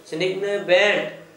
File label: broom stick, Gta, Dialect: Hill; household